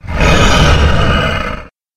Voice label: monster titan